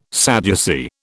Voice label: "Sadducee", British

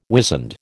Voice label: "wizened", American

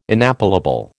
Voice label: "inappellable", American

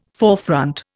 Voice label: "forefront", Indian

